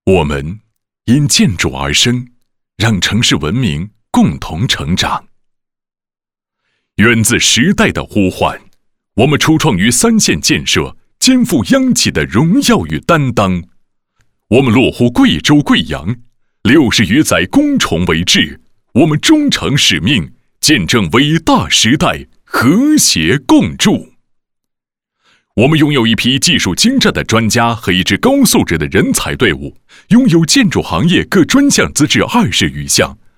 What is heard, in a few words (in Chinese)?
形象片; 科研院